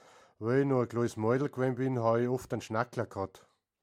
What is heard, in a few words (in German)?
hoerbeispiel nordbairisch; geigant; sprachliche vielfalt